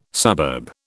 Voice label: "suburb", British